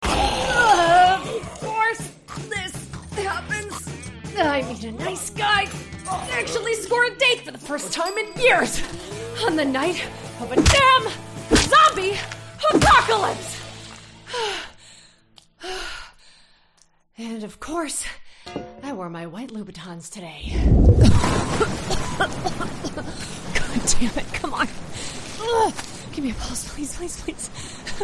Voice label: english american video games; actriz de voz premiada